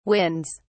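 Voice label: "winds", British English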